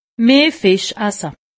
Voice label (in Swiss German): "fisch", alsace; medecine